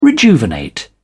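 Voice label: "rejuvenate", British English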